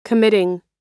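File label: "committing", American English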